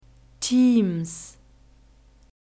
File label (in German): "teams", German